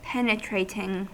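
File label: "penetrating" English, US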